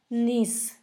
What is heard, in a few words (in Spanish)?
agua, Zapoteco; Fenómenos; San Pedro Mártir; INALI